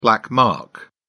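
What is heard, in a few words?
"black mark", British English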